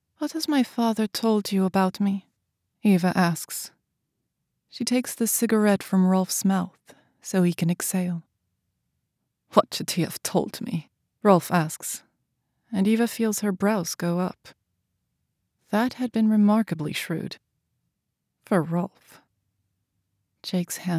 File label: historical thriller mf german accent